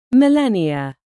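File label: "millennia", British English; Time, history; Thời gian, lịch sử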